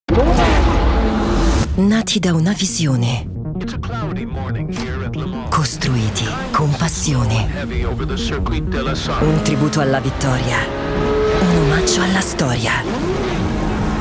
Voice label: italian automotive, voice actors

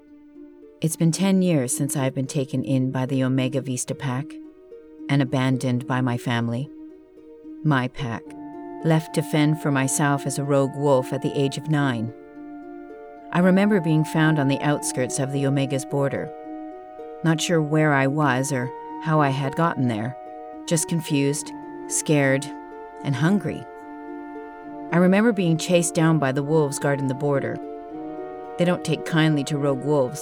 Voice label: English canadian audiobooks

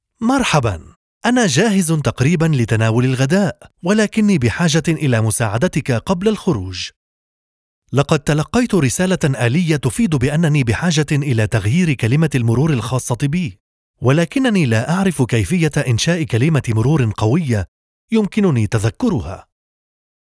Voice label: arabic e-learning

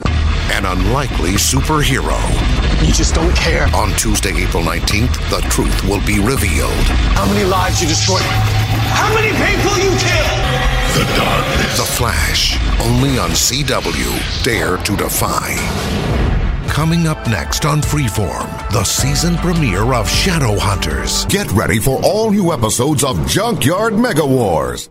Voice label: english american tv shows

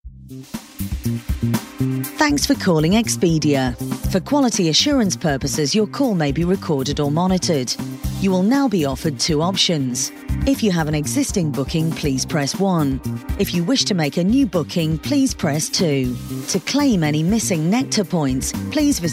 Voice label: english british ivr

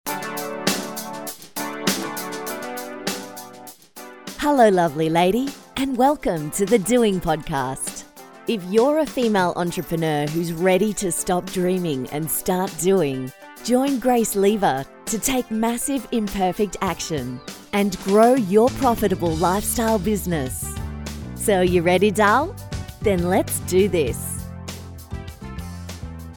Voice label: english australian podcasts

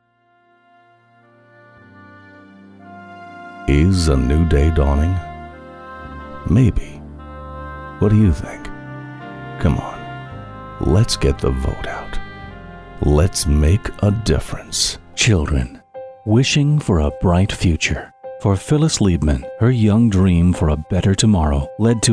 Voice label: english american political ads; professioneller amerikanischer Synchronsprecher

